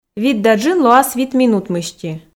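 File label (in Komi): вит да джын лоас вит минут мысти, Komi, female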